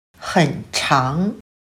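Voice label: "很长", Chinese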